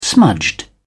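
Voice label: "smudged", British English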